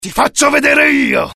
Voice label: anger, dialogue IT; mohaa; attack